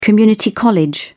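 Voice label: "community college", British English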